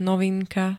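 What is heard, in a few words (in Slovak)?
"novinka", Slovak; nový spôsob (činnosti ap.)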